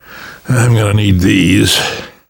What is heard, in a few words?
take pills, namvet, survivor voice; Left 4 Dead 2; left4dead2 dlc3